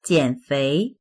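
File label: "jian3fei2", Chinese; jiǎnféi; 减肥; ダイエット（する）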